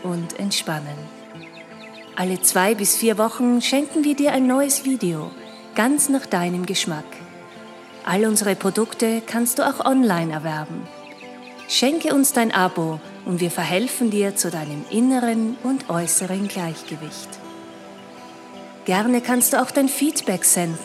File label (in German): YouTube Kanal Ansage